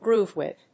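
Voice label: "Groove with", English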